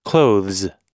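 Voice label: "clothes", US English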